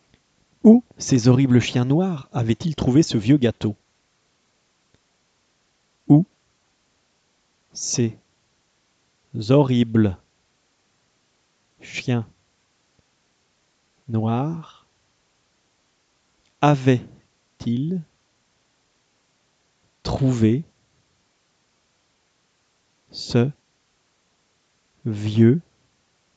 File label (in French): dictee bilingue homophones grammaticaux